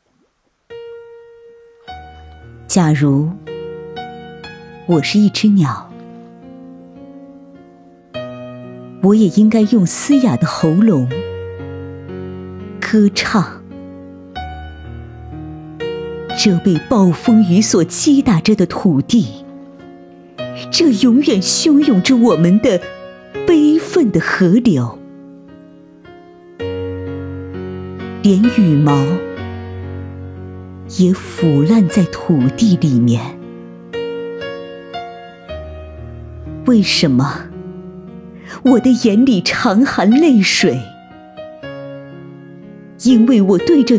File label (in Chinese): 朗诵